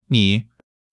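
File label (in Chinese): "你", Chinese; HSK1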